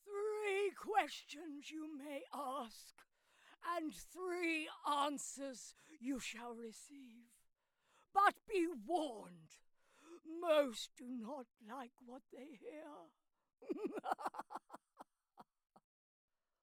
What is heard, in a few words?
RP Elder - Gaming; Game Demo